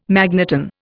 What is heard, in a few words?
"magneton", Indian